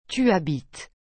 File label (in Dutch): "tu habites", frans; habiter